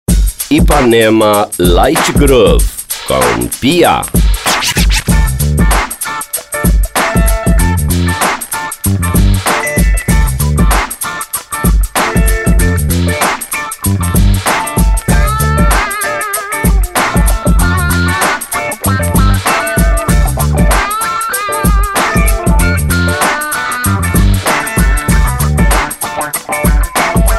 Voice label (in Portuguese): spot; vinhetas 2005; IpanemaFM